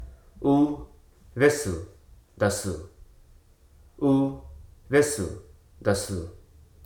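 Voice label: "kind", spoken word; hruso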